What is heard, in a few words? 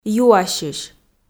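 "юасьысь", Komi, female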